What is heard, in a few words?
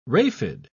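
"raphide", British English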